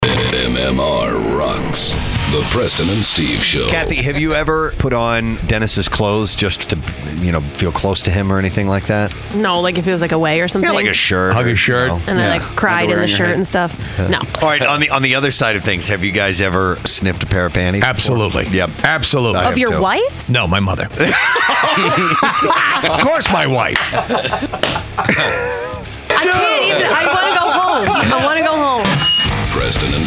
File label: PROMO; SNIFFING PANTIES